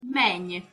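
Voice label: "meny", Hungarian pronunciation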